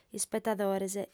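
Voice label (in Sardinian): "ispetadores", Sardinian, female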